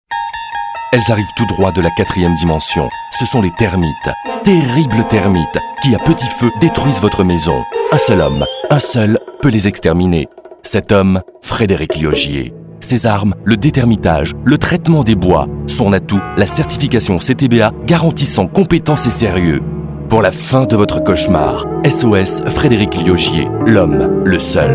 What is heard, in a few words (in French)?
Spot radio